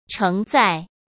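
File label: "承载", Mandarin Chinese; hsk; chinese-flashcards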